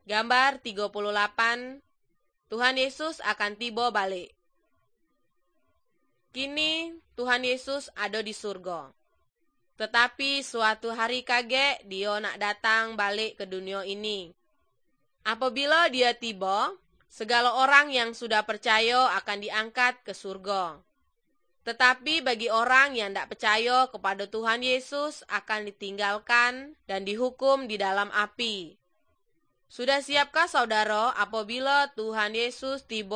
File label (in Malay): good news malay jambi